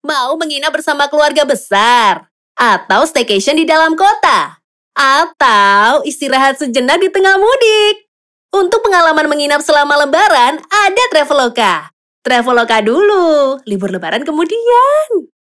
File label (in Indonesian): CASUAL INDONESIA